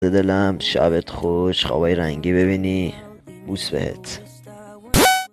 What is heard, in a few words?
Voice boy, Iranian boy